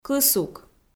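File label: "кысук", Komi-Zyrian, female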